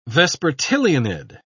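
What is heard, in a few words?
"vespertilionid", British English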